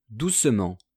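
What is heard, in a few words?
"doucement", French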